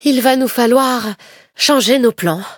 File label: defeat, fr; cookie run